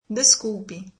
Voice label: "Sorry", brazilian